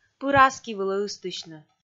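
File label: "пыр аски вылӧ ыстысьны", Komi, female; kpv audio dict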